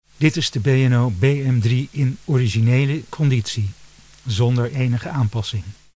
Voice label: B&O BM3 sound NL original